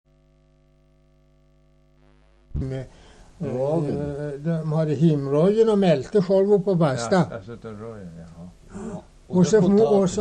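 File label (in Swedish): Nora Ångermanland, dialekter; Folkminnen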